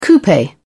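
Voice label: "coupe", British English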